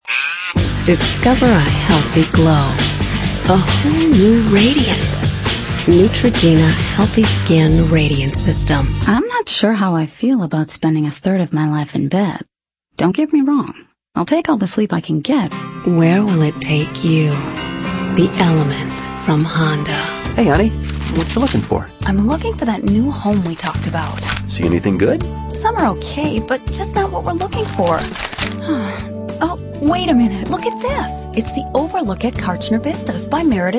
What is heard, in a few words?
female, late 30s early 40s, clean